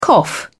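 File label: "cough", British English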